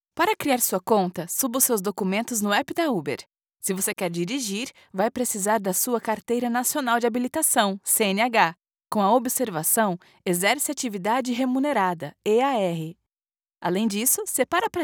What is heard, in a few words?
elearning training young dynamic conversational user guide female; uber